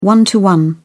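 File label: "one-to-one", English, British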